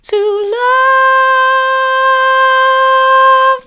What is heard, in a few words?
to love soft; vocal